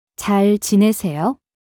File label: Korean How are you; Greetings